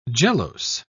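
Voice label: "gelose", British English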